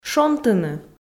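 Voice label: "шонтыны", Komi, female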